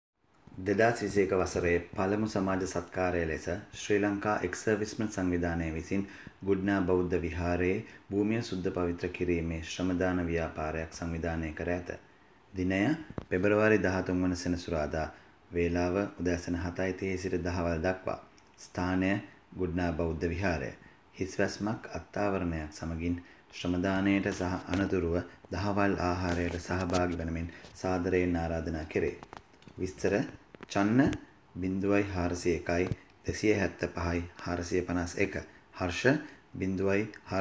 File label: announcement; shramadana